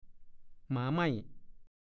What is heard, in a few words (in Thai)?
"หมาไม่", ภาษาโคราช; ดูคำว่า หมอไม่